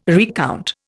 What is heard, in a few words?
"recount", Indian